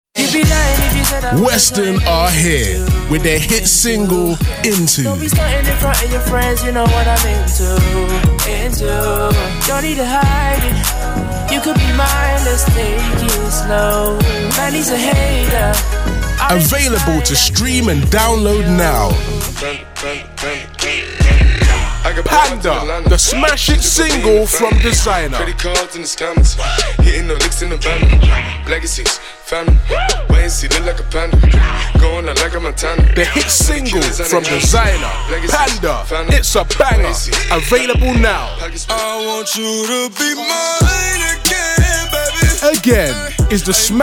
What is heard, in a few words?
Music Commercials; reels